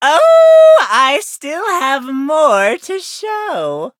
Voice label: levelup, en; Cookie Run